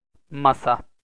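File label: "masa", Turkish, male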